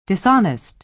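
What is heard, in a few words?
"dishonest" American English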